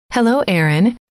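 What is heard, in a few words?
hello, female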